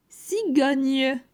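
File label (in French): "Cigogne", French; phonetique; la graphie gn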